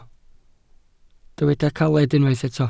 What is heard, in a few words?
"caled", Welsh